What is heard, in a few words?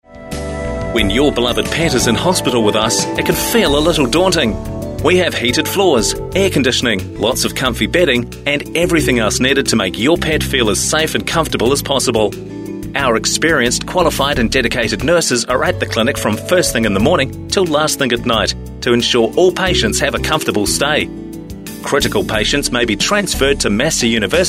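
voice over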